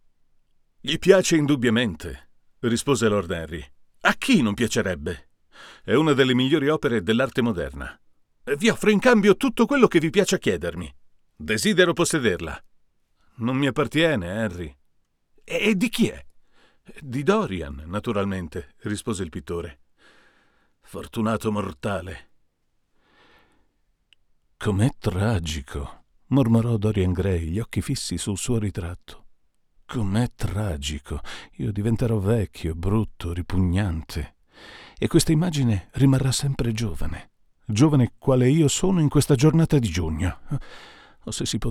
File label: Sontronics OMEGA per Confronto U87AI